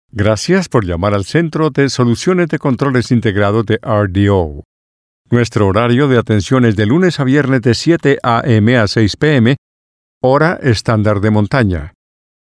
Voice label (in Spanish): spanish on hold ivr